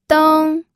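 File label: "deng", pinyin, first tone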